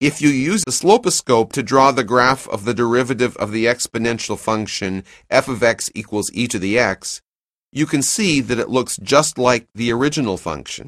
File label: narration; CALCULUS 7E; cengage